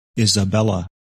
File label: "Isabella", women names; Английские имена: женские